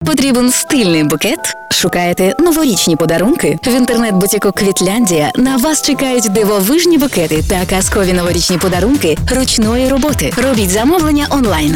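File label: Ukrainian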